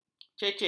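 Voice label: "ceceh", Malay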